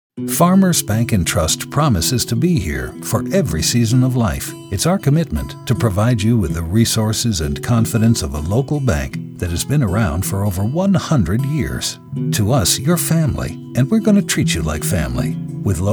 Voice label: radiospot